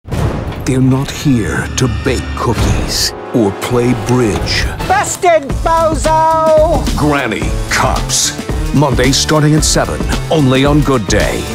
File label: tv affiliate programming promos